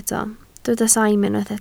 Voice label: "saim", Welsh